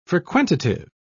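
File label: "frequentative", British English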